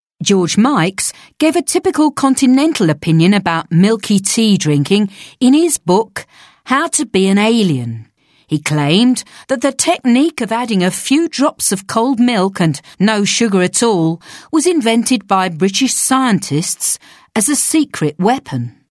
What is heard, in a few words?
South East English